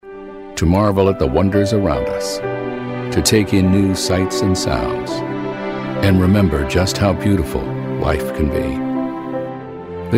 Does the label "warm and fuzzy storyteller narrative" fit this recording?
Yes